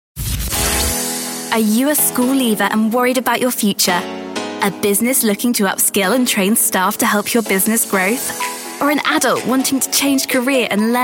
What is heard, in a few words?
daughter 18